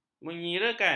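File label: "menyirakan", Malay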